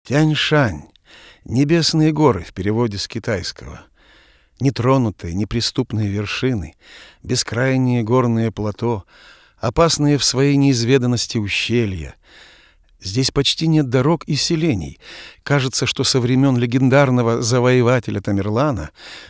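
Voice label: storyteller, Russian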